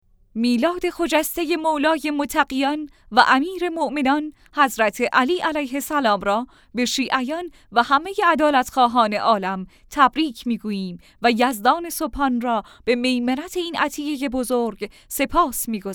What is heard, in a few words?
religious, female narrators; english